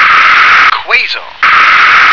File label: "quasar", English